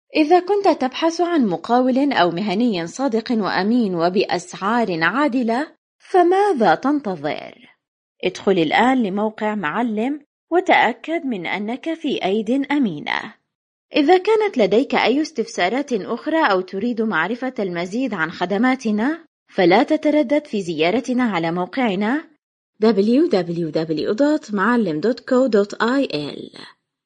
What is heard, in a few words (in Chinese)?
阿拉伯语女声